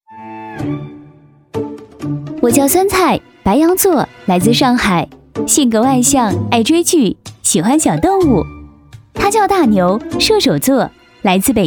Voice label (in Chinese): 女4-广告, 女; 我们结婚了